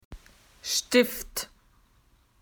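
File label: "pencil", Australian English; PictureDictionary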